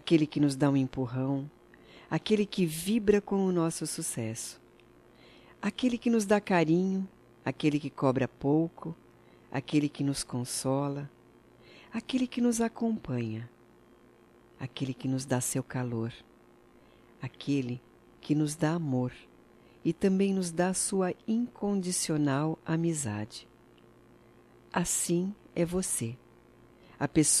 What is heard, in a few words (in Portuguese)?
DIA DO AMIGO F; Datas Mistas; Telemensagens 2017